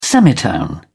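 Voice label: "semitone", English, British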